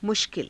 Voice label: "mushkila", Hindi

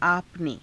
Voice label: "aapa ne", Hindi; आपने

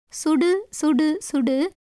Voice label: Tamil

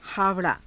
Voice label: "haava_raa", Hindi

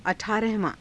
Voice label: "a thaarahavaa~", hindi

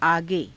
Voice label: "aage", hindi

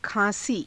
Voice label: "khaa~sii", Hindi; खाँसी; [女]咳

